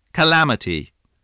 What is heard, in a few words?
"calamity", spelling